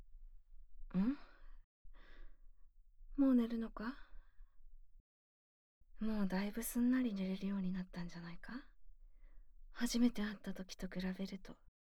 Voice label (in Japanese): 不眠解消添い寝